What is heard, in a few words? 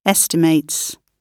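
"estimates", British English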